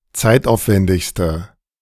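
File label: "zeitaufwendigste", German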